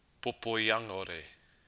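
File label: "popoiangore", Māori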